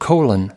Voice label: "colon", us